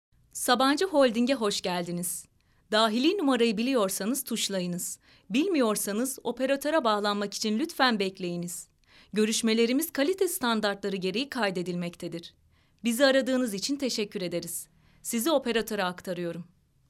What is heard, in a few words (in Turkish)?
REKLAM